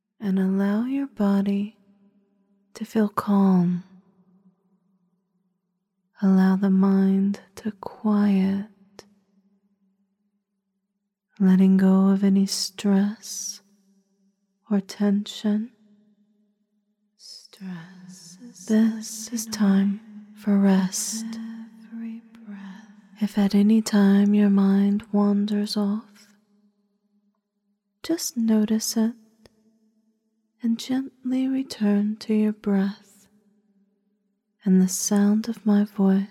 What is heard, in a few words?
Breathe And Sleep Induction